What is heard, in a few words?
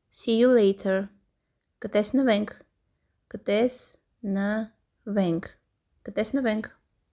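English "See you later", Armenian "Ktesnvenk"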